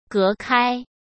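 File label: "隔开", Mandarin Chinese; chinese flashcards; hsk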